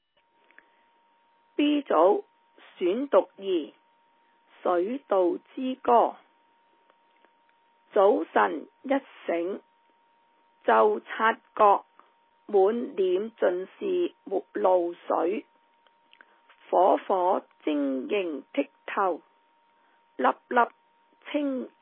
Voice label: Cantonese Read Aloud; 2021 ANCCS 國粵語朗讀稿漢語拼音 Read Aloud Articles